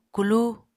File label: "kulu", Apatani